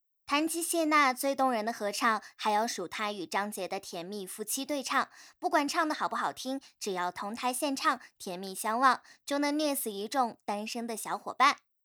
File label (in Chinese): 综艺